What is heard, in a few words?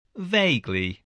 "vaguely", English